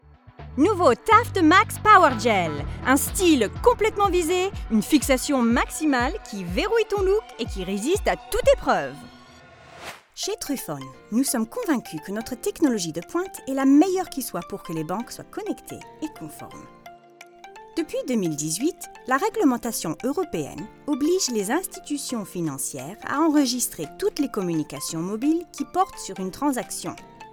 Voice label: French